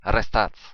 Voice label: stop, occitan

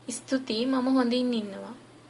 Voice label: fine, sinhala

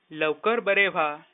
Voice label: get well soon, marathi; लवकर बरे व्हा

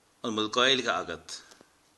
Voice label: do you speak, Irish

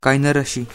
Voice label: goodbye, manipuri

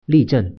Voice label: "stop", Mandarin

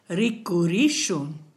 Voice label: goodbye, kichwa

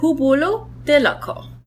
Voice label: write, gujarati

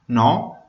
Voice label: no, Venetian

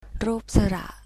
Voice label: vowels, thai

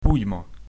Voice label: cheers, ukrainian

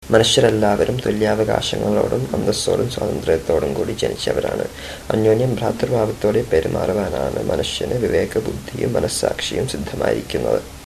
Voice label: udhr malayalam

